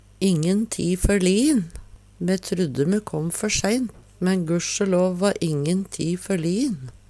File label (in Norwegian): ingen ti forliin, Numedalsmål